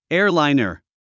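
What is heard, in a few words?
"airliner", English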